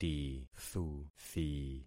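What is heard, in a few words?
"tithuthi", concatenated syllables; Affixing experiment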